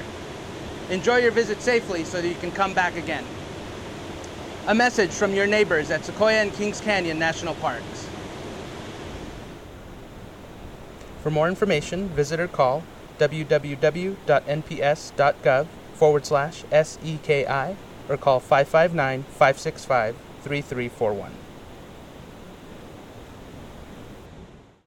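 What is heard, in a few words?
Water Safety English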